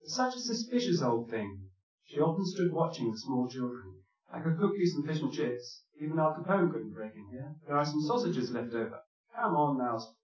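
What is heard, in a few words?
male speech; SiSEC10; underdetermined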